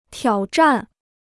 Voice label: "挑战", Chinese